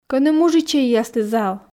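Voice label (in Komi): кӧні мужичӧйяслы зал, Komi, female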